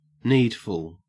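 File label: "needful", English, Australian accent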